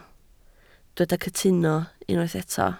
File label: "cytuno", Welsh